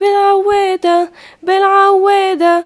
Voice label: young girl; USB Soundscan vol.43; AKAI